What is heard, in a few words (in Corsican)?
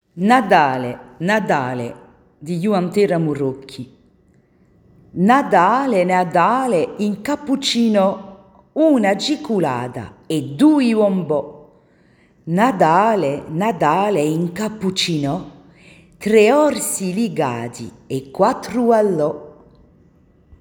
puesie lettura; NATALE